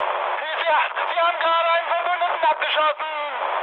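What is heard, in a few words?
German player killed ally mood high, speech; War Thunder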